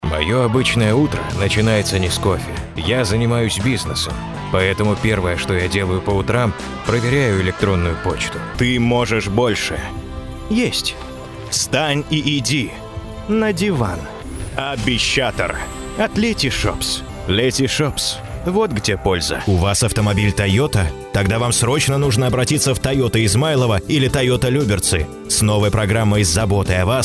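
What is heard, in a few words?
Russian advert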